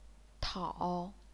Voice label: "tao3", chinese pinyin

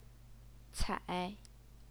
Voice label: "cai3", Chinese pinyin

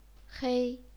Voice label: "hei1", chinese pinyin

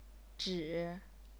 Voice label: "zhi3", chinese pinyin

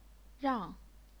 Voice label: "rang4", chinese pinyin

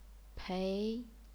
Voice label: "pei2", chinese pinyin

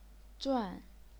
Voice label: "zuan4", chinese pinyin

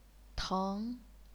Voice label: "teng2", chinese pinyin

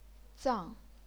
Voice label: "zang4", chinese pinyin

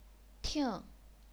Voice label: "ting4", chinese pinyin

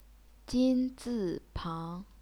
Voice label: "jin1 zi4 pang2", chinese pinyin

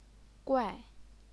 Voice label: "guai4", chinese pinyin

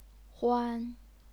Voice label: "huan1", chinese pinyin

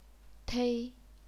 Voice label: "tei1", chinese pinyin

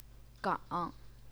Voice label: "gang3", Chinese pinyin

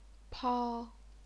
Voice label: "pao1", chinese pinyin

